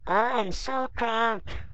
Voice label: drunk